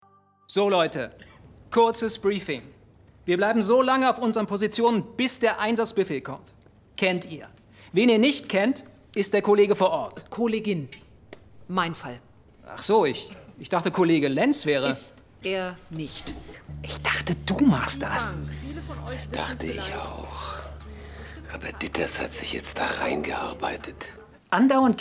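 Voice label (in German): Radiotatort, Sprecher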